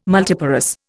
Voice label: "multiparous", Indian